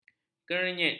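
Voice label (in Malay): "kernyit", Malay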